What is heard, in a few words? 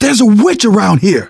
heard witch, manager, survivor, voice; Left 4 Dead 2; left4dead2 dlc2